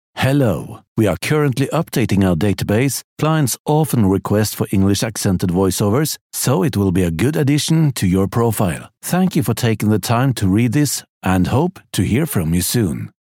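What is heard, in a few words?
Norwegian Male English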